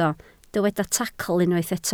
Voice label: "tacl", Welsh

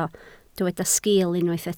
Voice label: "sgiil", Welsh

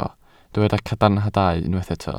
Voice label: "cadarnhadau", Welsh

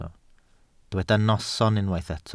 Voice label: "noson", Welsh

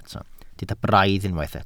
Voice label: "braidd", Welsh

